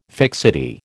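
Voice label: "fixity", American English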